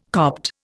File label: "Copt", Indian